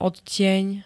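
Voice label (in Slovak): "odtieň", Slovak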